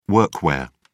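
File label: "workwear", British English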